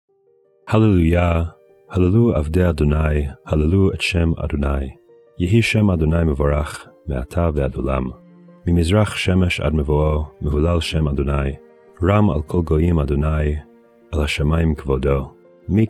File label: poetry and prayer psalm hebrew